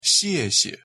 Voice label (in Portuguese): pronuncia mandarim obrigado